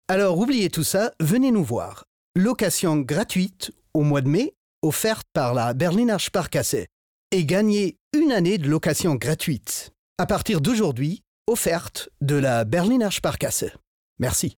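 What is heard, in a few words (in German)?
französisch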